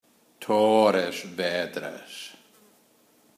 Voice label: "Torres Vedras", Portugal